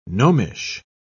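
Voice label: "gnomish", British English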